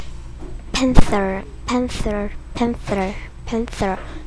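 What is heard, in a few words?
"pencil", spoken word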